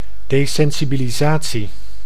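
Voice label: "desensibilisatie", Dutch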